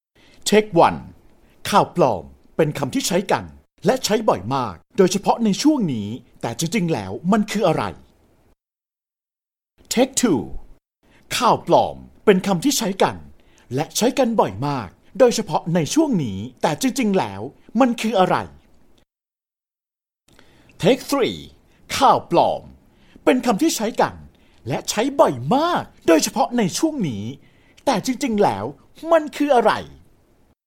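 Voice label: Thai, male, high energy promo; voice-over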